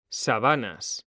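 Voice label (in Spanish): "SABANAS", Spanish; mekanta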